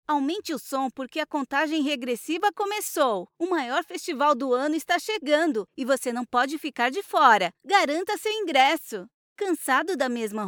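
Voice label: Upbeat Enthusiastic Energetic, Brazilian Portuguese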